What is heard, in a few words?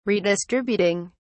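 "redistributing", English, British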